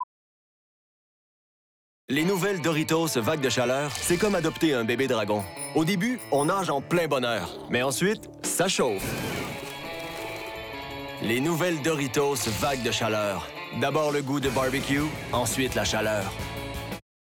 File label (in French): Publicité; Doritos